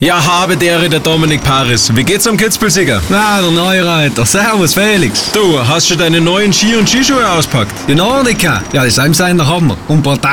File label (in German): Radiospot; Radiospot mit Felix Neureuter; und Dominik Paris für NORDICA